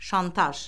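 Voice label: "şantaj", Azerbaijani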